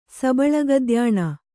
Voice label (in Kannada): "ಸಬಳಗದ್ಯಾಣ", Kannada